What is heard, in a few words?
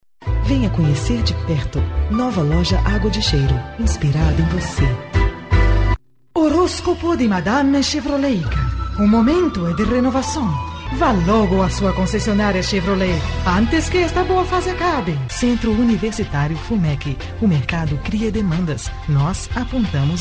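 Portuguese Brazil, female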